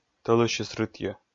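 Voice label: "тӧлысьыс рытйӧ", Komi, male; kpv audio dict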